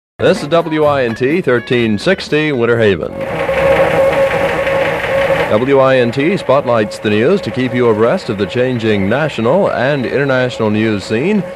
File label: Station ID News Open; WINT; 1962